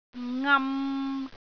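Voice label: "ngam3", Cantonese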